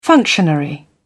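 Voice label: "functionary", British English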